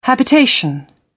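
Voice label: "habitation", British English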